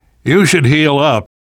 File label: player suggest health, namvet, survivor voice; Left 4 Dead 2; left4dead2 dlc3